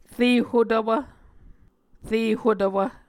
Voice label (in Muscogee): "re hotvwv", muscogee